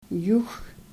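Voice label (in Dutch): "joeg", Dutch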